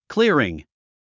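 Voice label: "clearing", English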